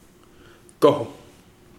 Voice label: smoke, Kapingamarangi